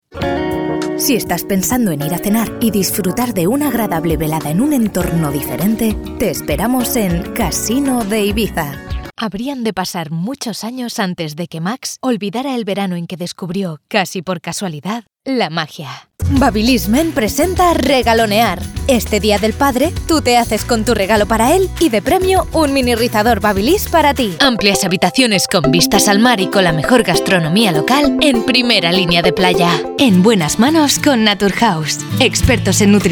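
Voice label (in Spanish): otros España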